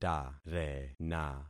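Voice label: "tarena", concatenated syllables; Affixing experiment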